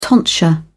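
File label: "tonsure", British English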